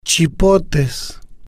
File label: "chipotes", spanish